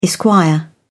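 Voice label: "esq", British English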